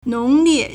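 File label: "nong2lie4", Mandarin Chinese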